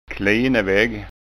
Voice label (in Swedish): "klinevagg", skanska